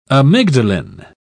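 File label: "amygdalin", British English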